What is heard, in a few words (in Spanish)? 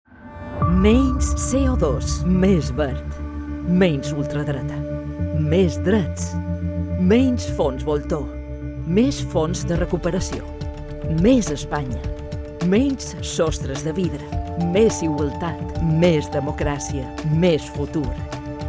CUÑA DE RADIO BALEAR; PSOE; EUROPEAS 2024